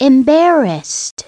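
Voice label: "embarrassed", English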